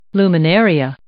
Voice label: "luminaria", English